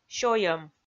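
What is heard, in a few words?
"сёйӧм" Komi, female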